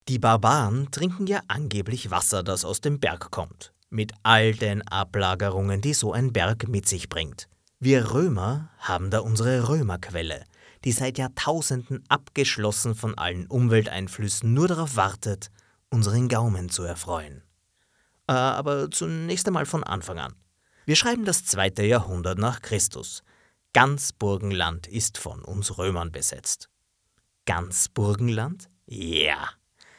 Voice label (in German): Imagefilm, Sprecher